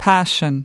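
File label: "passion", US English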